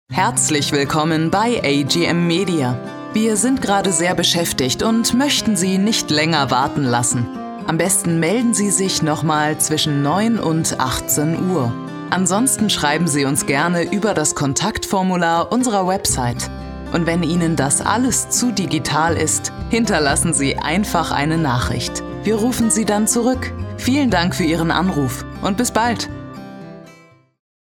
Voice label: Telefonansage

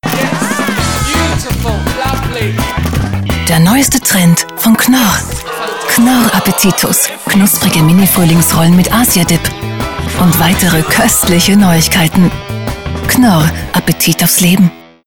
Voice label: Werbung

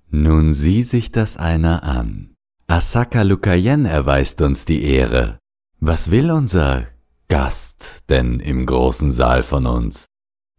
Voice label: Hoerspiel; Antilia

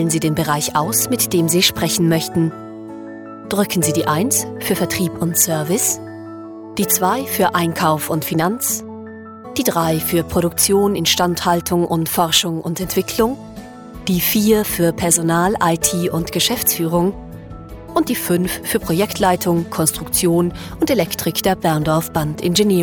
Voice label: TelAns deutsch englisch

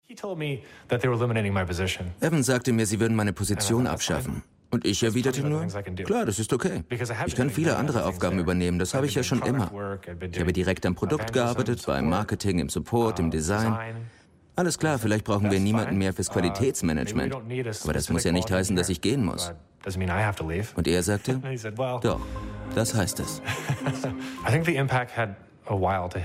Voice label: Doku

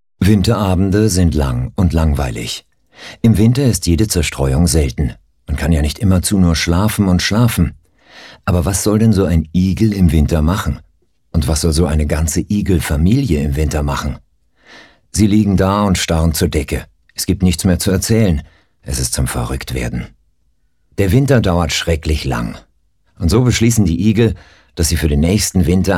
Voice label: Hoerbuch; Der Igel v Milos Macourek

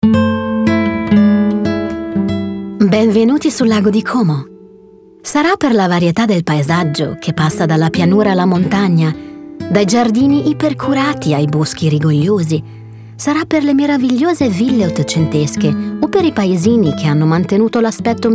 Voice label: IT Dokumentarfilm

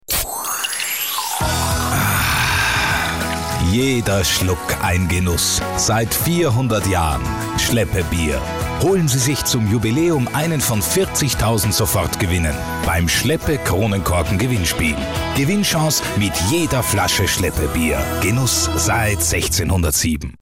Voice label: Werbung DE

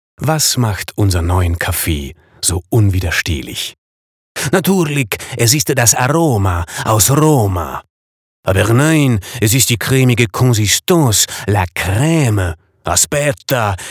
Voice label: Werbung DE

